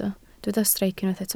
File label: "streic", Welsh